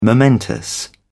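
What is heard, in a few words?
"momentous", British English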